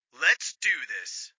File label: Radio Chatter "Let's do this!"